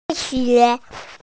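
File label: "science", Mandarin, hearing-impaired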